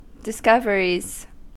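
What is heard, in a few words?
"discoveries", American English; pronunciations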